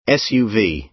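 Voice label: "suv", American English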